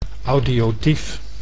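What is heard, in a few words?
"audiotief", Dutch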